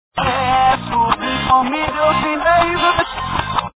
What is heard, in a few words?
reverse; Evidencias cristianas